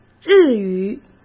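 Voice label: "日语", Mandarin Chinese; hsk; chinese-flashcards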